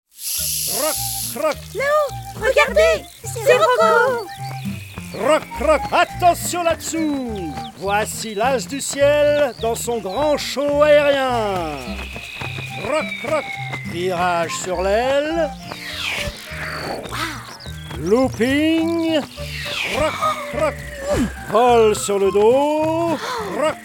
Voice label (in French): Histoire; Un jour à la montagne; Roco le corbeau